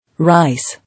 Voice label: "Rice", English

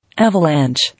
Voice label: "Avalanche", English

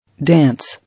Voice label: "Dance", English

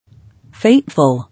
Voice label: "Fateful", English

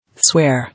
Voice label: "Swear", English

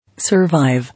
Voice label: "Survive", English

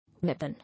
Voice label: "Weapon", English

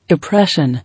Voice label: "Oppression", English

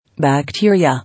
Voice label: "Bacteria", English

